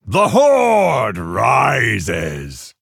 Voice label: Male Orc Greetings